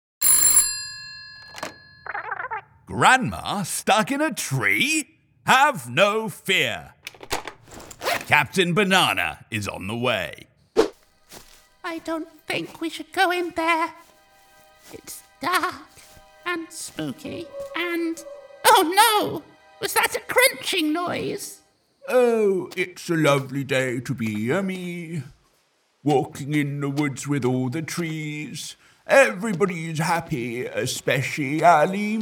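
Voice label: Children's Animation, British Male Voice Actor, British RP voice; Children's Animation Reel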